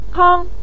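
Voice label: "kang", Mandarin Chinese, neutral tone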